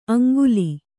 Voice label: "ಆಂಗುಲಿ", Kannada

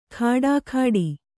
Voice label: "ಖಡಾಖಡಿ", Kannada

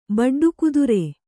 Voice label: "ಬಡ್ಡುಕುದುರೆ", Kannada

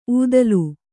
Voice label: "ಊದಲು", Kannada; ūdalu; noun; = ಊದಿಕೆ.

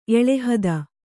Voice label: "ಎಳೆಹದ", Kannada

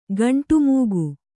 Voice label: "ಖಂಡಮೇಘ", kannada

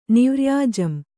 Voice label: "ನಿವ್ರ್ಯಾಜಂ", Kannada

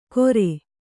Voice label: "ಕೊರೆ", Kannada

